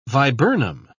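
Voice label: "viburnum", British English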